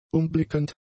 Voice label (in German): "umblickend", German; adjectives